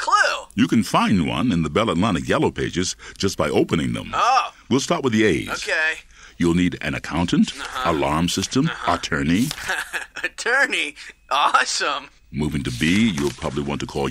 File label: Dude